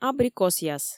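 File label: "абрикосъяс", Komi, female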